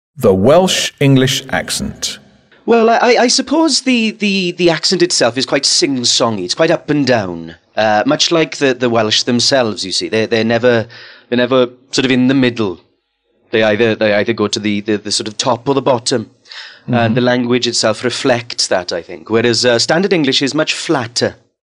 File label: Welsh English accent